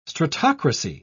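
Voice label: "stratocracy", British English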